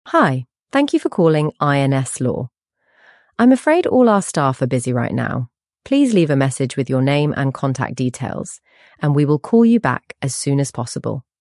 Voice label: greeting, voicemail; solicitors